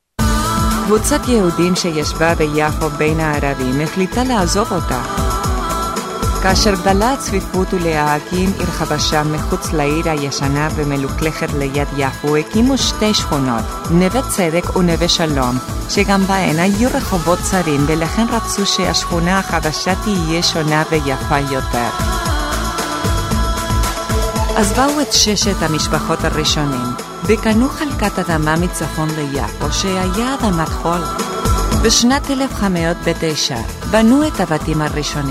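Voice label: hebrew female voice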